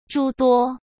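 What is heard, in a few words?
"诸多", Mandarin Chinese; chinese-flashcards; hsk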